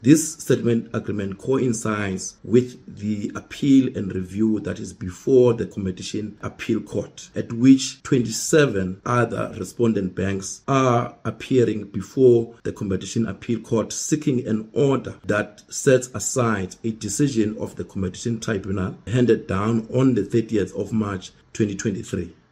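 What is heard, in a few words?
English; Bank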